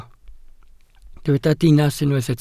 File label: "dinas", welsh